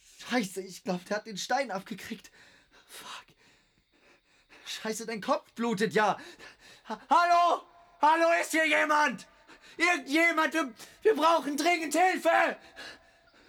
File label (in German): Hoerspiel verzweifelt; Olymp